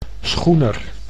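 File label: "schoener", Dutch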